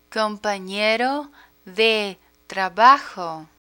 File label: "companero de trabajo", Spanish; learn spanish